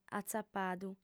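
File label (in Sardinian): "atzapadu", female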